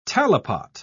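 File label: "talipot", British English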